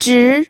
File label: "zhi2", pinyin